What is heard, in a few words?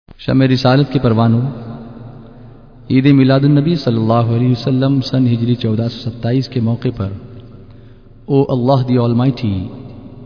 Announcement; naat; O Allah The Almighty